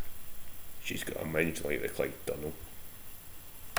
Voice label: "minge", slang; Ah huvnae seen ye aboot